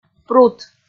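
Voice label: "прут", Komi, female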